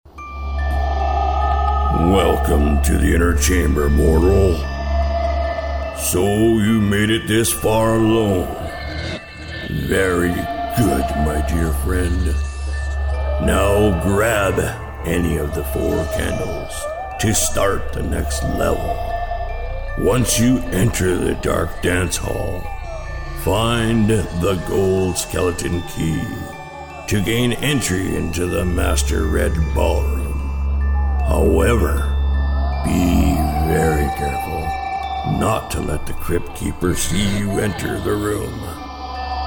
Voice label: character

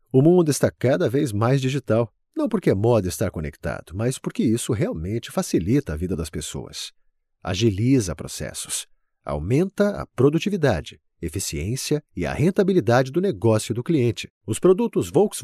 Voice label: e-training

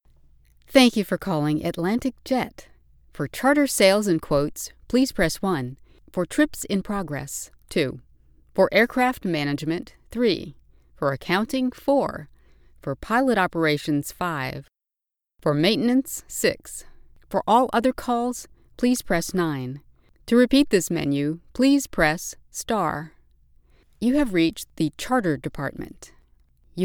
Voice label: On Hold IVR

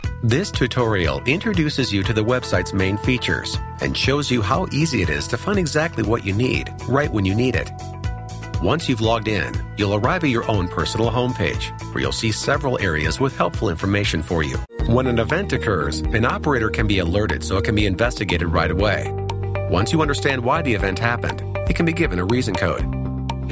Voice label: Anglo eLearning

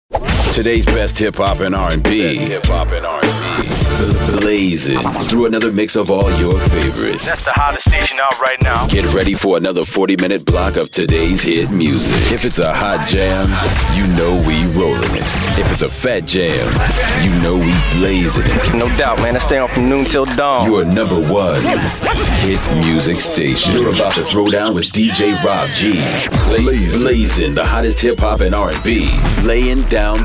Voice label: Imaging

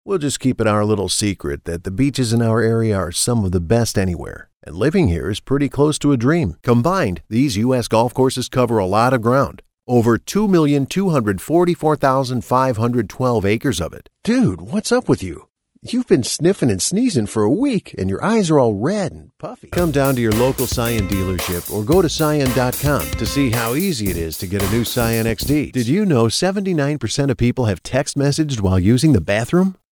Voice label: Conversational

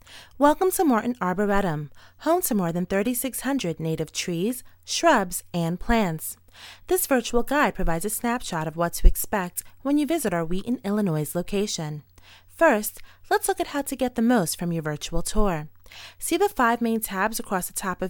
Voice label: Narration Sample

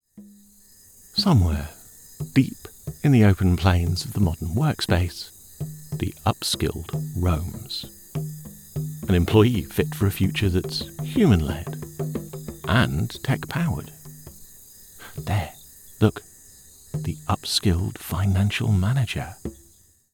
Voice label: Nature Doc Spoof

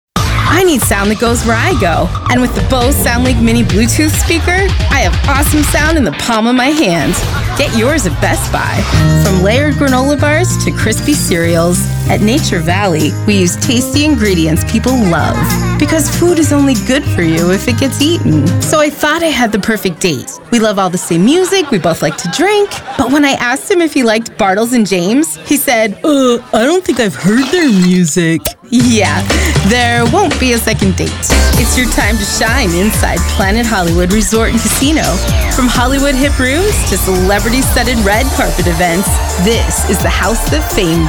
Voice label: Commercials

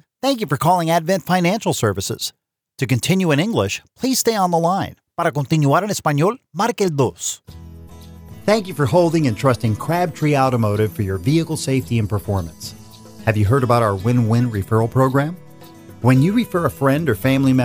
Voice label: Telephony

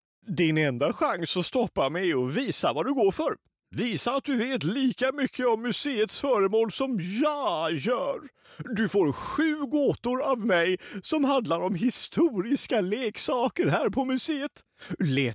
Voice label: Character Swedish; Brio

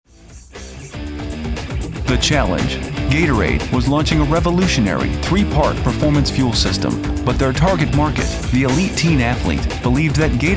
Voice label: Narration Voice Over

